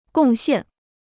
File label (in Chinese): "贡献", Chinese; gòng xiàn; to contribute; to dedicate